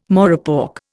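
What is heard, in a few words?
"morepork", Indian English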